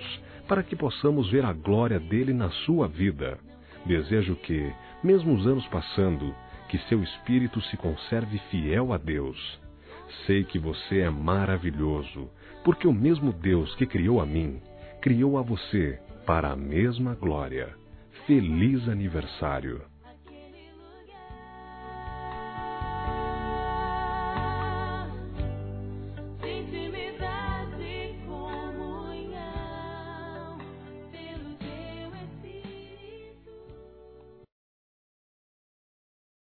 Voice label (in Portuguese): Cunhado Masculino; ANIV. Evangelico